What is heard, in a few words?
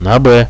bomb here B, radio bot; Reallite